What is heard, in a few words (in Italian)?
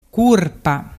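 "curpa", Vernazzese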